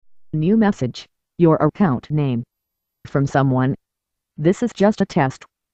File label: Voice Notifier